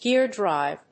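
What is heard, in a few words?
"Gear drive", English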